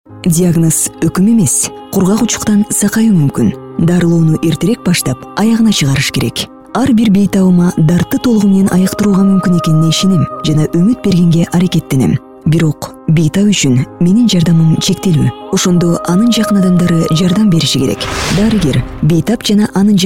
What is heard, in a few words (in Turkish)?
Kirgizca Kadin; seslendirme